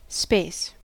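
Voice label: "space", US English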